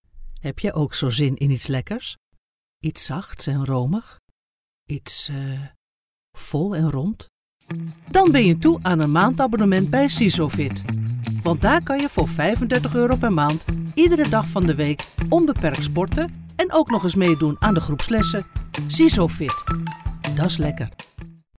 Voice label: Promo voiceover